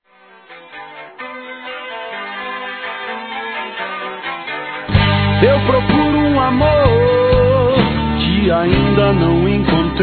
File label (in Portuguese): BOAS FESTAS ROMAN. F; Telemensagens; Natal e Ano novo; fem; Misto